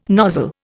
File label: "nozzle", Indian